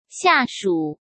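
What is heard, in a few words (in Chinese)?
"下属", Mandarin Chinese; chinese flashcards; hsk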